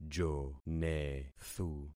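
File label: "jonethu", concatenated syllables; Affixing experiment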